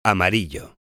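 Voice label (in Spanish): "amarillo", Spanish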